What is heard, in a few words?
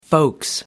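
"folks", British English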